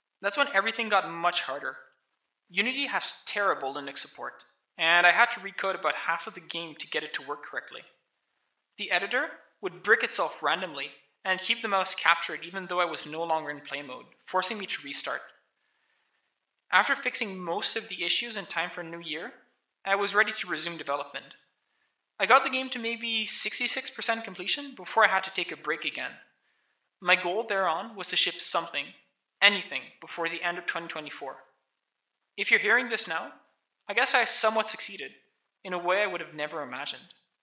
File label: voice, narration